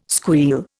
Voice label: "squeal", Indian

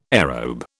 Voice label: "aerobe", British

